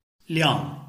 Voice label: "liang4", Chinese

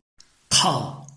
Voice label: "kao4", Chinese

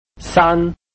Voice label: "san1", Chinese

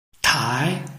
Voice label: "tai2", Chinese

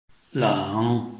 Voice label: "leng3", Chinese

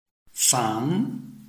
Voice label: "fan2", Chinese

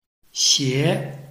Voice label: "xie2", Chinese

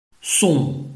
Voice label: "song4", Chinese

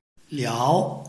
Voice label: "liao2", Chinese

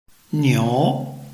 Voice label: "niu2", Chinese

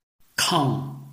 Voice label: "kang4", Chinese

